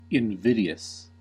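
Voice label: "invidious", US English; pronunciations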